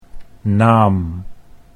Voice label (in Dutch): "naam", Dutch